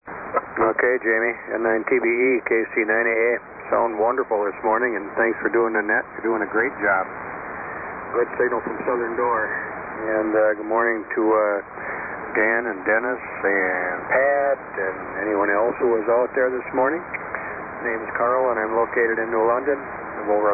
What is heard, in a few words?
Kenwood TS-820S; New London, Wi.